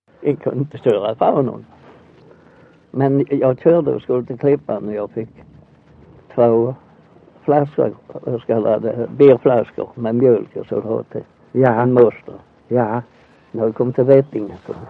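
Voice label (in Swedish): Össjö Skåne, dialekter; Folkminnen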